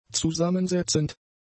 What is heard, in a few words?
"zusammensetzend", German; adjectives